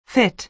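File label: "fit", American English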